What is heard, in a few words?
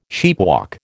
"sheepwalk", American